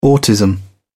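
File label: "autism", British English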